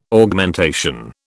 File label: "Augmentation", British